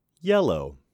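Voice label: "Yellow", male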